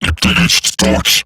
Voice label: Attack Robot Large; Battle; Eximus; Eximus Corpus Robotic Large; en